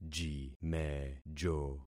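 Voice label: "jimejo", concatenated syllables; Affixing experiment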